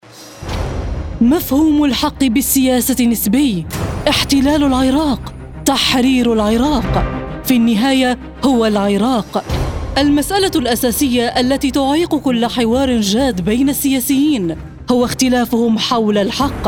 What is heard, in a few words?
arabic promos